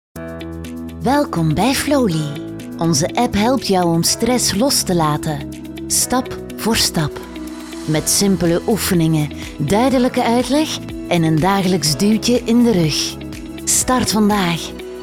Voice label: flemish explainer videos; flemish voice actors

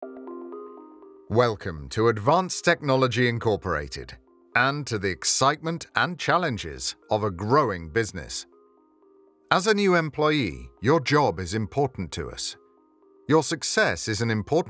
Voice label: english british explainer videos

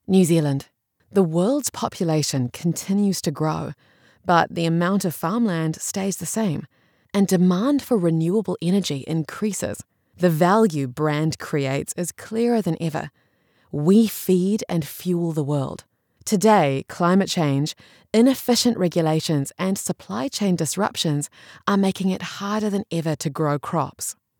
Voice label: english new zealand documentaries

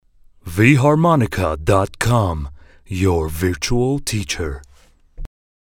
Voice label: english corporate videos

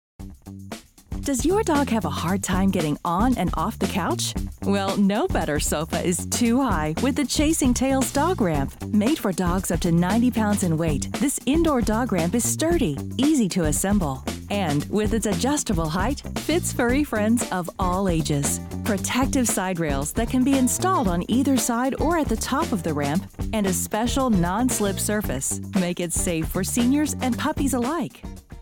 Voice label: english american promos

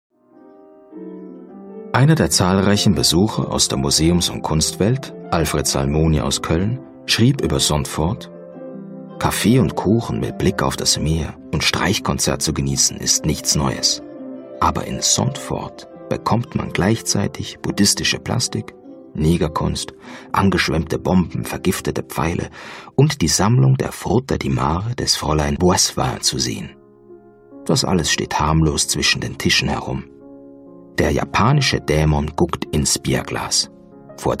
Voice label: german documentaries

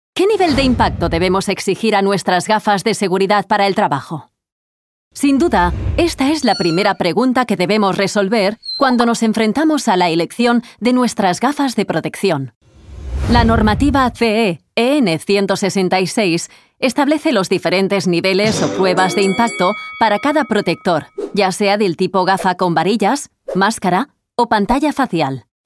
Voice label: spanish animation

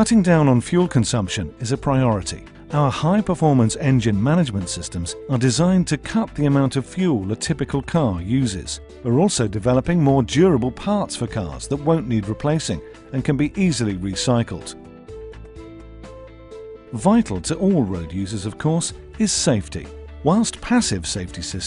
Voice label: english british automotive; professional British English voice actor

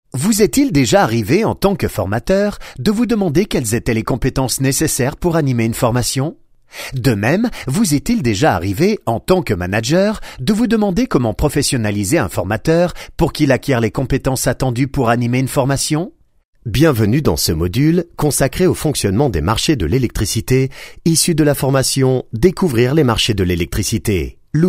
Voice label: french switzerland narration; swiss french

